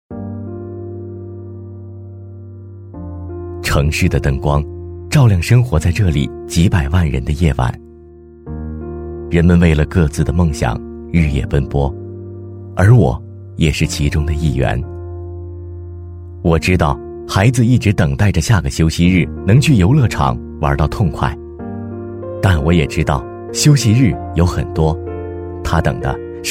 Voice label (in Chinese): 男 独白; 最后一公里